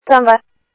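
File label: Light Rail Security Light Rail, scanner audio; ThompsonPeakHigh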